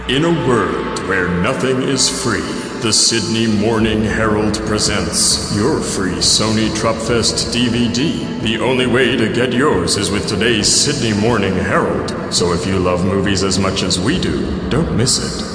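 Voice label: effective announcement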